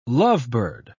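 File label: "lovebird", British English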